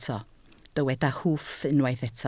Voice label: "hwff", Welsh